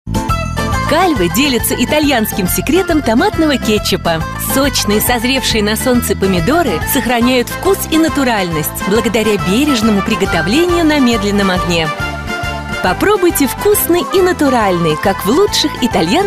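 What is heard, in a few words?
Russian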